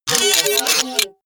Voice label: robot voice click